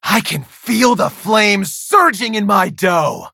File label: levelup, en; cookie run